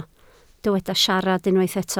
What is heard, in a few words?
"siarad", Welsh